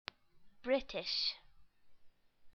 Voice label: "british"